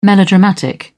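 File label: "melodramatic", British English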